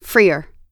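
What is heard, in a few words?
"freer", US English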